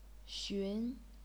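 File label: "xun", chinese pinyin, second tone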